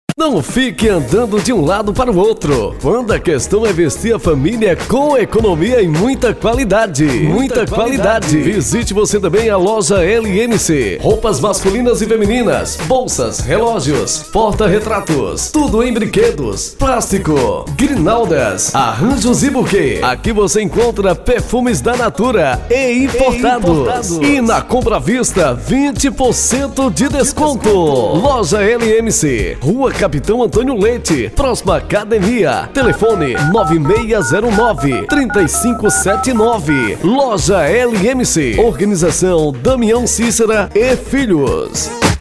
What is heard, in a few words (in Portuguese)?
locutor spot comercial